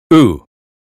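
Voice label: American phonetics sound situation; 英语音标发音（剑桥版）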